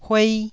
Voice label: "huei1", Taiwanese syllable

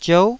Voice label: "ziou9", taiwanese

